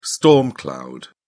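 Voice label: "storm cloud", English, British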